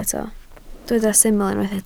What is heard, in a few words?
"syml", Welsh